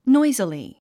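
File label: "noisily", English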